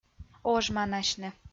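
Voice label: "ожманасьны", Komi, female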